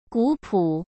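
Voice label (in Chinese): "古朴", Mandarin Chinese; hsk; chinese-flashcards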